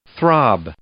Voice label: "throb", verb; English